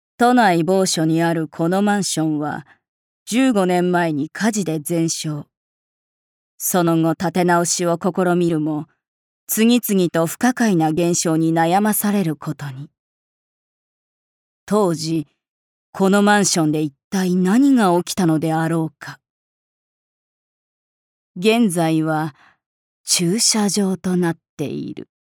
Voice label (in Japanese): ナレーション